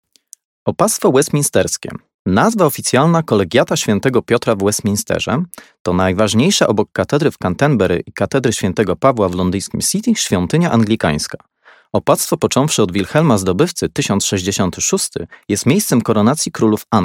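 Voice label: Polish, Narrative; GoLocalise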